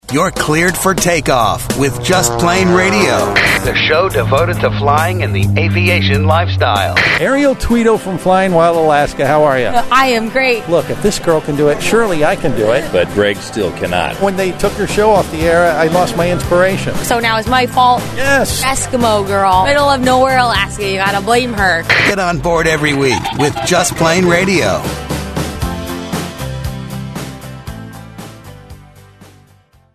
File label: Promo; Ariel Tweto